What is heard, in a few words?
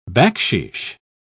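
"bakshish", British English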